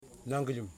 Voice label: "Finger", Yimas

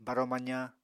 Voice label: "baro-mazañ", Apatani

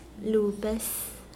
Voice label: window, Mokilese

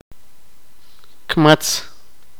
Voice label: sipakapense; serpiente

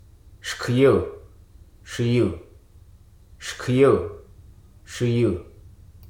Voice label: "grow", Hruso

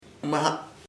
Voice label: "against (in debate) en contra de", chamacoco

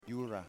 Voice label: Dog, yimas

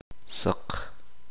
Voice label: "blanco", Uspanteko

